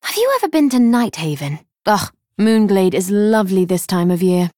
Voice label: Female Night Elf